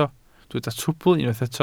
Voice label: "trwbl", Welsh

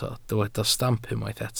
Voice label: "stamp", welsh

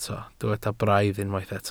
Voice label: "braidd", Welsh

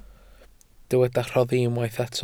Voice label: "rhoddi", Welsh

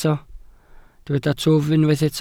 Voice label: "twf", Welsh

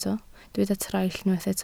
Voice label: "traill", Welsh

